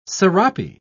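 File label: "sarape", British English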